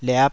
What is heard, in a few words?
"liap5", Taiwanese syllable